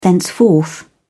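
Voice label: "thenceforth", British English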